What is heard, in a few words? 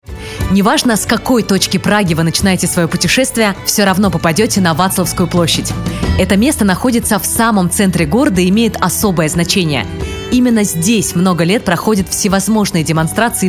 RUS